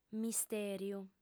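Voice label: "mistèriu", female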